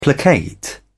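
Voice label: "placate", British English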